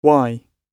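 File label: why, British English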